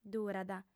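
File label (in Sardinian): "durat", female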